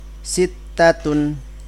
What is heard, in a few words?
"sittatun", Arabic; angka arabic 1-10